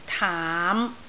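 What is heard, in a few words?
"taam5" Cantonese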